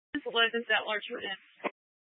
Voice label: Fire Dispatch, scanner